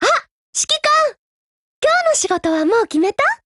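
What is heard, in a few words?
UMP9Mod HELLO Japanese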